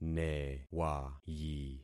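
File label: "newayi", concatenated syllables; Affixing experiment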